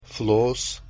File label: "flos" Latin